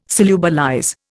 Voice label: "solubilize", Indian